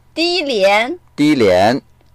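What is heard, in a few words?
"di1lian2", Mandarin Chinese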